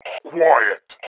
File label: QUIET; valve; ALIEN2